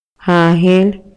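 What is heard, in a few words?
Maya, male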